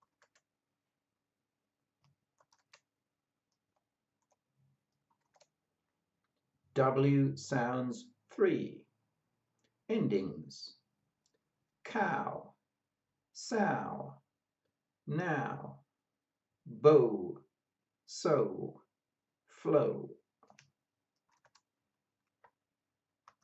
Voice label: W sounds endings, pronunciation of australian english